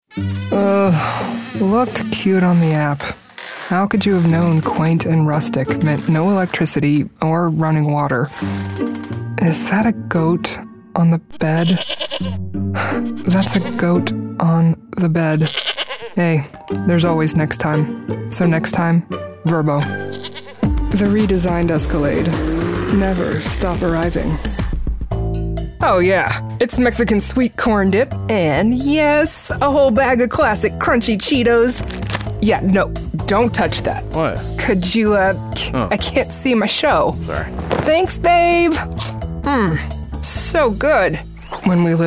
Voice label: Commercial